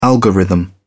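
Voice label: "algorithm", British English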